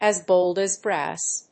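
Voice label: "as bold as brass", English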